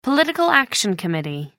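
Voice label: "political action committee", British English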